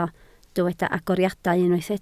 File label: "agoriadau", Welsh